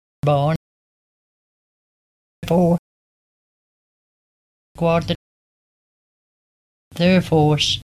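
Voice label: Nonrhotic: bo(r)n fou(r) qua(r)te(r) th'airfo(r)ce, Chorley district; rhoticity; accents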